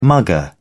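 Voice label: "mugger", British English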